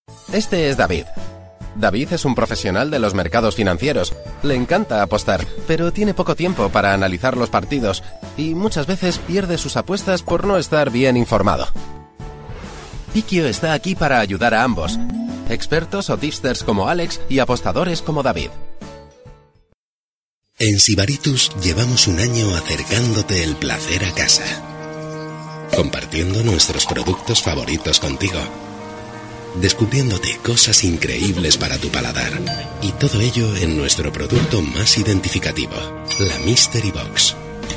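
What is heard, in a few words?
Spanish EU, male, commercial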